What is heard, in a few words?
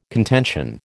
"contention", American